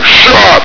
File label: "shot!", combine soldier; hl2